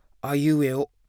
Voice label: Tense Voice aiueo

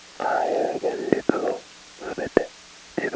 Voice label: male talker

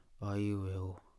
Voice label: Breathy Voice aiueo

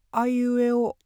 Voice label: Falsetto aiueo

